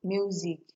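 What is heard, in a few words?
"music", Nigerian Pidgin, female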